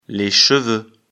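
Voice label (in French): "les cheveux", French; afrikalan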